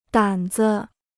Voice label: "胆子", Chinese

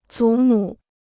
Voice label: "祖母", Chinese

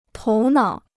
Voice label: "头脑", Chinese

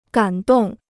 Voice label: "感动", Chinese

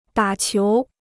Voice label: "打球", Chinese

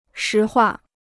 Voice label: "实话", Chinese

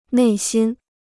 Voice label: "内心", Chinese

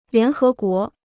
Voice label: "联合国", Chinese

